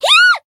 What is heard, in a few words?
Voice damaged jp; ilulu